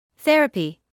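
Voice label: "therapy", British English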